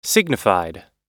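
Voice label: "signified", American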